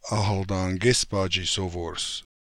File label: "Akhaldangezpoodjnisov", Beelzebub pronounciations; Fourth Way; RUS